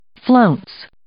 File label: "flounce", English